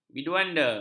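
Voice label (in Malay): "biduanda", Malay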